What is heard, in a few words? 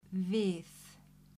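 "við", Icelandic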